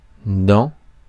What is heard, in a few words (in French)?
"dents", francais; Mots francais phonemes